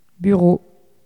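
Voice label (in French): "bureau" French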